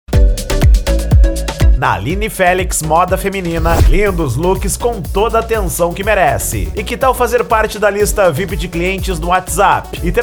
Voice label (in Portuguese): Locutor; Aline Felix Moda Feminina Belo Horizonte MG